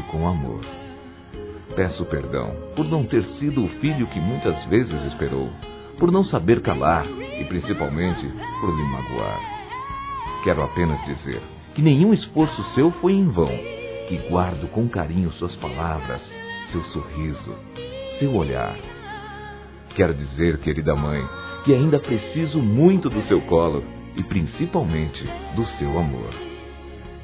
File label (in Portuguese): OBRIGADO MÃE M, Dia das Mães, Masc; Telemensagens 2017